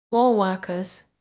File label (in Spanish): Voice Acting es F0